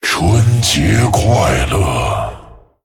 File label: Male Dragon HAPPY NEW YEAR LUNAR; 春节; 春节快乐！; 风暴巨龙迦拉克隆（英雄）; zh